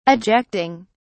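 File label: "ejecting", British English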